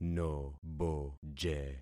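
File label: "noboje", concatenated syllables; Affixing experiment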